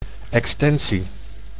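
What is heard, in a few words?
"extensie", Dutch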